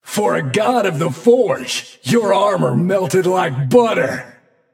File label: taunt directed; vulcan